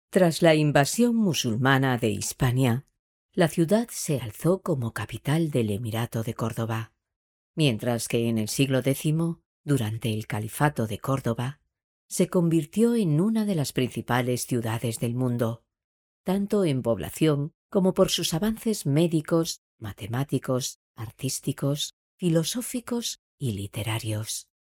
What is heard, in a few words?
locutora spanish voiceover